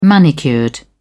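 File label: "manicured", British English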